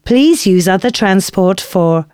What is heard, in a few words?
announcements female